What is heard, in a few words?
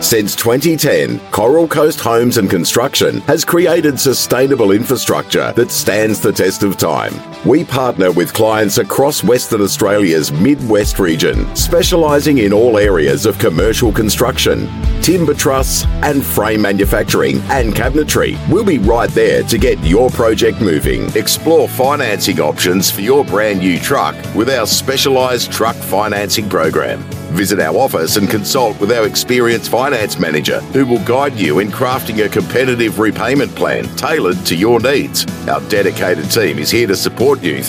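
AU English On Hold, Australian English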